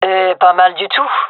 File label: compliment, fem